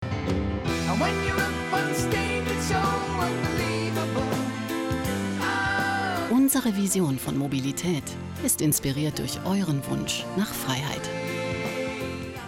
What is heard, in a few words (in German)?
WERBUNG TV; Citroen